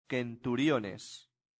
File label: "centuriones", latin; santillana